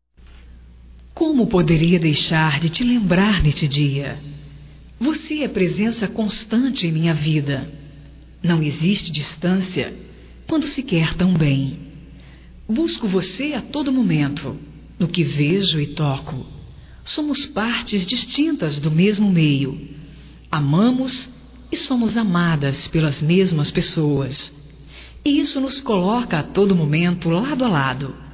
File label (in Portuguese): IRMA, Telemensagens, Aniversarios, fem; Telemensagens 2017